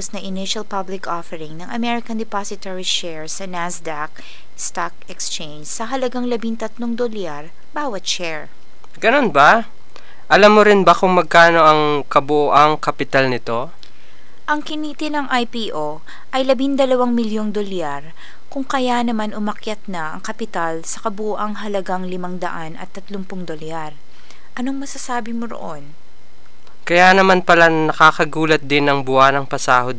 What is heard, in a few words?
call center blooper, Tagalog Business Dialogs